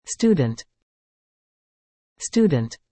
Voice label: "student", English

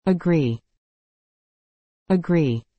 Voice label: "agree", English

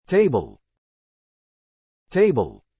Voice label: "table", English

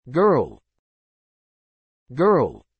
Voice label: "girl", English

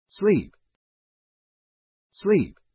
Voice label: "sleep", English

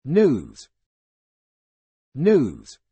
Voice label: "news", English

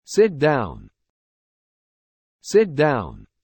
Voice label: "sit down", English

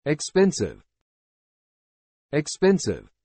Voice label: "expensive", English

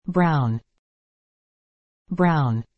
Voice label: "brown", English

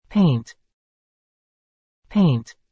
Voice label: "paint", English

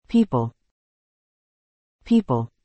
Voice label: "people", English

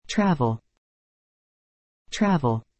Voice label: "travel", English

